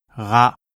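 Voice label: uvular fricative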